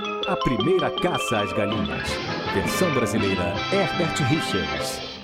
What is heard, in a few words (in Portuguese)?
Locutor; Walky Talky Hawky; Herbert Richers